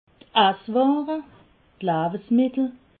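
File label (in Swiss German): "essware", Haut-Rhin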